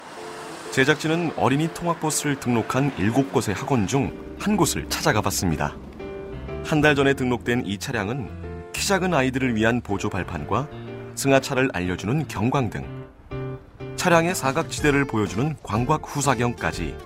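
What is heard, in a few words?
Korean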